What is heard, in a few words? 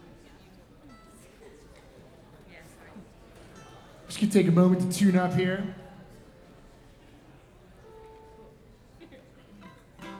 Tuning Banter